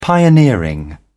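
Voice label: "pioneering", British English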